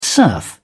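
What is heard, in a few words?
"serf", British English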